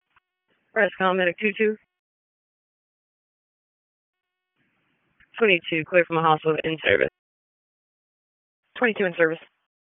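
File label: EMS DISP, radio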